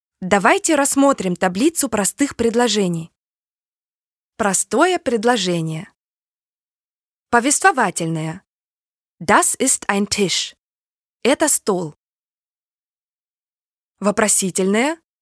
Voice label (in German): Russisch E Learning